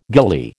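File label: "gillie", American English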